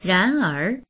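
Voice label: "ran2er2", Mandarin Chinese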